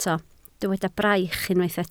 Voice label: "braich", Welsh

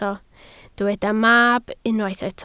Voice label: "mab", Welsh

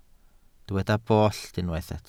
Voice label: "bollt", Welsh

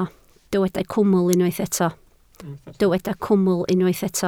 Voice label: "cwmwl", Welsh

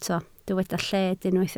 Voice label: "lled", Welsh

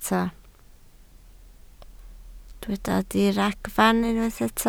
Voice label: "diragfarn", Welsh

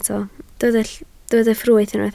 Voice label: "ffrwyth", Welsh

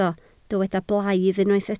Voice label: "blaidd", Welsh

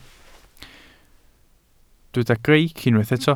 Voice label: "greic", Welsh

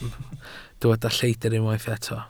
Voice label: "lleidr" Welsh

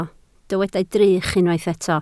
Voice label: "drych", Welsh

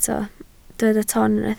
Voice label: "ton", Welsh

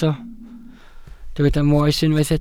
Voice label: "moes" Welsh

